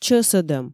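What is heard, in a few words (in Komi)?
"чӧсӧдӧм", Komi, female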